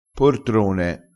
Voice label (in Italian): "portrone", dialettale, crespinese